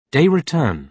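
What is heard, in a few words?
"day return" British English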